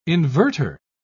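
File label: "inverter", British English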